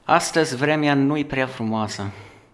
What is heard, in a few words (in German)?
spricht Rumänisch